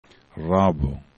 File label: "rabo", esperanto